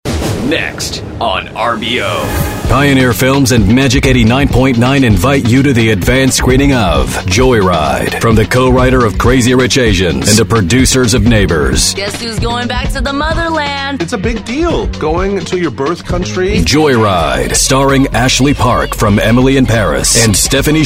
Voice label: CLASSIC MOVIE TRAILER Voice Radio Box Office Commercial; JOYRIDE